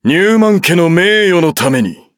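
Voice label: start, Japanese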